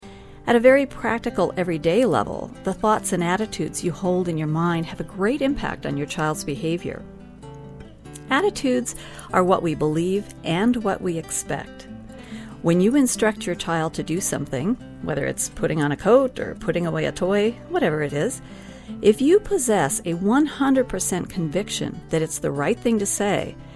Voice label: Calm Authority